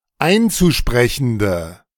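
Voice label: "einzusprechende", German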